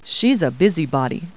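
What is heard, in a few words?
woman; Talking Clip Words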